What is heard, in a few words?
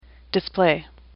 "display", English; AWL